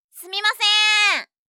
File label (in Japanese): "すみませーん！"